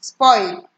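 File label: "spoil", Nigerian Pidgin, female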